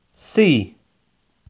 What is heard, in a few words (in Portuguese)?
Alfabeto em Inglês Pronúncia a letra C